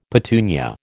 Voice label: "petunia", American

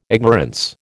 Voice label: "ignorance", American

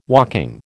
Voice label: "walking", American

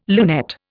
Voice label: "lunette", Indian

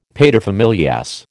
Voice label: "paterfamilias", American

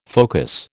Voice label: "focus", American

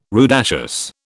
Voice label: "rudaceous", British

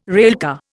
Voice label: "railcar", Indian

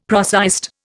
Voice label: "prosaist", Indian; Dictionary

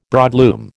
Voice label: "broadloom", American

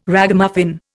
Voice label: "Ragamuffin", Indian

